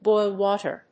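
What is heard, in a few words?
"boil water", English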